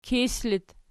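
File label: "kis" locative, Archi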